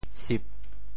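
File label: "sip4", Teochew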